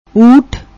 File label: "uut", Punjabi